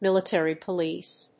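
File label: "military police", English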